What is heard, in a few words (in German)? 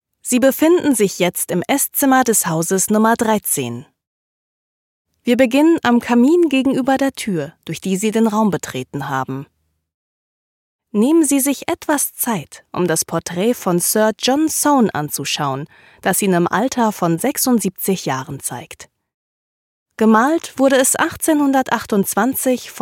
Audioguide erzaehlend; John Soane